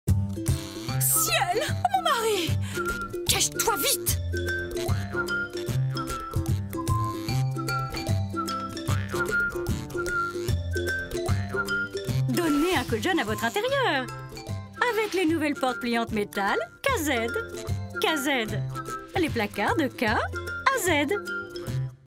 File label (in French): Pub Web; Portes KAZED